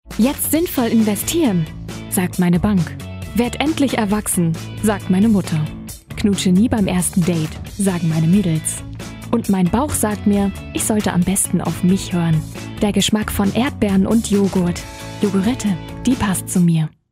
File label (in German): werbung nachgesprochen; yoghurette